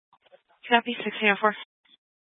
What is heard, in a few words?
Fire Dispatch